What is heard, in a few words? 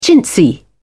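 "chintzy", English, British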